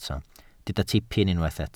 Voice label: "tipyn", Welsh